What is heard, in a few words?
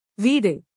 "veedu", tamil; texttoSpeech